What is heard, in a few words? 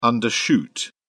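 "undershoot", English, British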